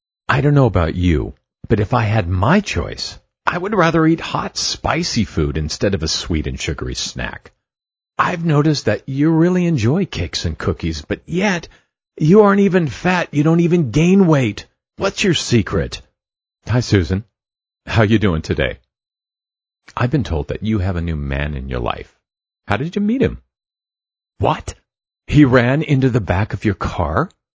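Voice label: American English, male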